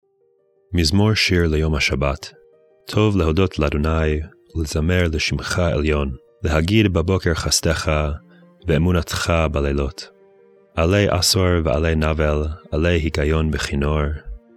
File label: poetry and prayer psalm hebrew; Meir Soloveichik